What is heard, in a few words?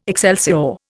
"excelsior", Indian